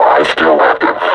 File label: reconnoiter search, vo combine suppressor